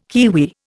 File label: "kiwi", Indian